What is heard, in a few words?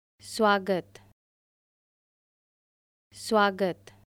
"Welcome", Hindi